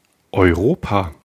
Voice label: "Europa", d

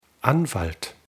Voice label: "Anwalt", d

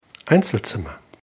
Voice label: "Einzelzimmer", d

